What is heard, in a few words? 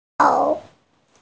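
"naughty", hearing impaired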